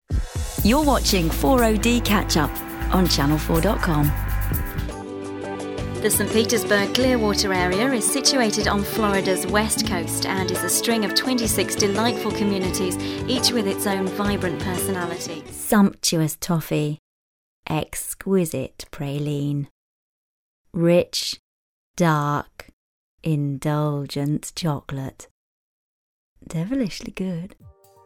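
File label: British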